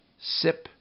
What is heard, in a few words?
"sip", Minimal Pairs; Rhymes ip